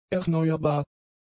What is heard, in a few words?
"erneuerbar", German; adjectives